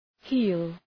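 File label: "heel", English; {hi:l}; πτέρνα; φτέρνα; τακούνι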